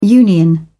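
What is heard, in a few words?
"union", British English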